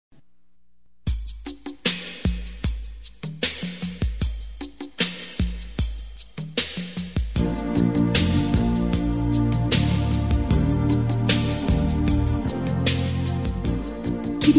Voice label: ROM LOCUTOR FEM

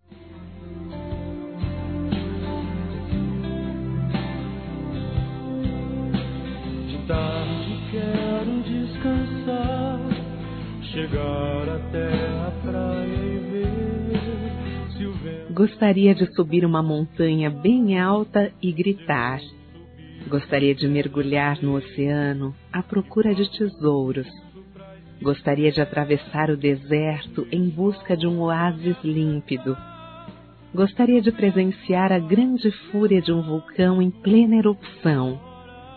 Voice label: Telemensagens Amor Fem; Telemensagens 2017

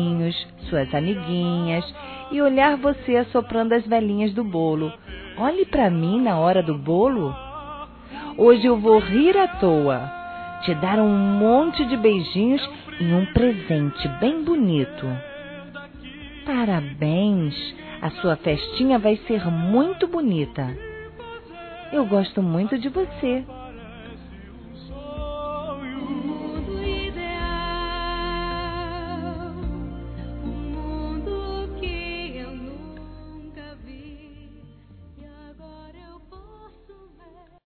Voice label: Neto Criança Feminino, Aniversário Infantil; Telemensagens

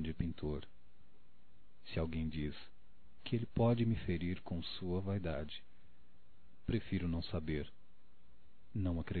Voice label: Amor Masculino; Telemensagens 2017

